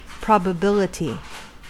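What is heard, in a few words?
"probability", US English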